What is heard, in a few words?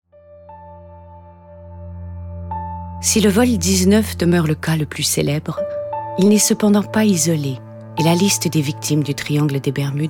Narration